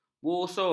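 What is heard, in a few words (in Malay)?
"busur", Malay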